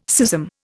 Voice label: "schism", Indian